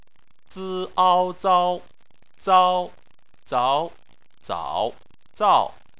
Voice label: "zao", chinese